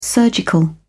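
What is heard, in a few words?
"surgical", British English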